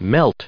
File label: "melt", us